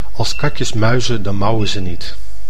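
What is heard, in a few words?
"als katjes muizen, dan mauwen ze niet", Dutch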